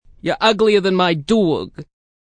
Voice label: Uglier Than My Dog, Male; Attitude

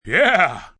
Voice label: "Yeah" React, Reaction, positive, male; Exclamation

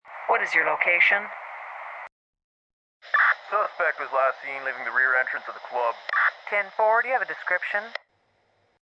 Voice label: Police Suspect, Police dispatch radio, female

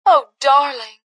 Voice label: Oh Darling, Female; Talking

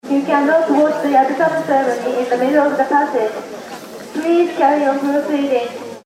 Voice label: Loudspeaker Announcement Female Japan; Loudspeaker announcement, Japanese female speaking English; Pa Announcement Sound Effects

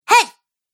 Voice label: "Hey", Womans Voice; Recorded Voice Sound Effects

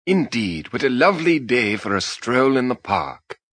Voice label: Lovely Day For Park, Male

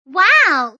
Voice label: Women

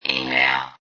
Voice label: Robot Voice "Email"; Robot Type 1 voice

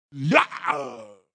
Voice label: Vocal Deep; Yeah

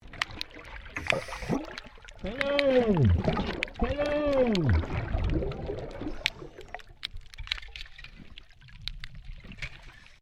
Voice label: MAINTAINENCE WORK UNDERWATER DIVER SAYS HELLO; Maintainence divers off StKilda Pier; Construction

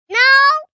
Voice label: "No", Response, 1 boy, 4 yrs; Talking Sound Effects; Sound Effects:Voice; VoiceBox

